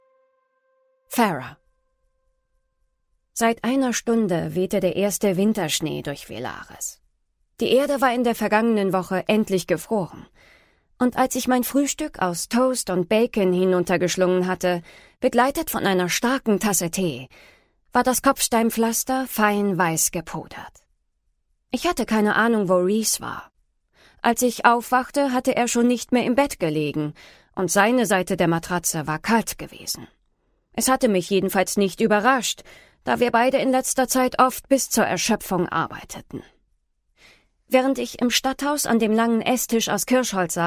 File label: german audiobooks